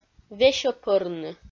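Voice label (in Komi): "весьӧпӧрны", Komi, female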